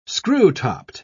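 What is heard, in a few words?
"screw-topped", British English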